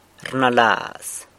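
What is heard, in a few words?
"rnalaz", San Lucas Quiaviní Zapotec; thinks about (someone)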